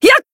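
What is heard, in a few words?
Voice attack, Japanese; minalca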